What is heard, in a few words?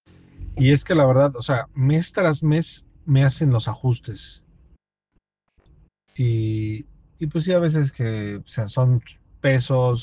whatsapp ptt